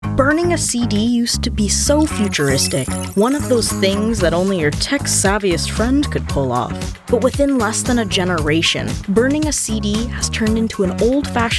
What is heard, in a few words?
Narration